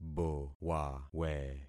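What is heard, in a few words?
"bowawe", concatenated syllables; Affixing experiment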